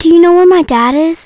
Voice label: Girl Worried; Warehouse

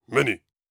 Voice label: surprised

